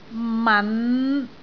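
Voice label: "man5", Cantonese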